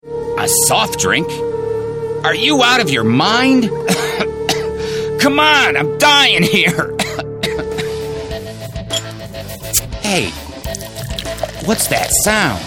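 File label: American English, TV commercial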